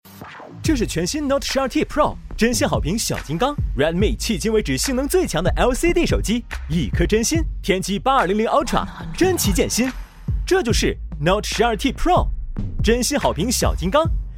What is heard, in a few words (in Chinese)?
男 素人; 素人风格男声; 小米D2